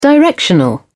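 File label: "directional", British English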